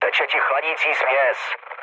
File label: damaged water, speech cze, mood low; War Thunder